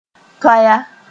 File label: "toaya", moore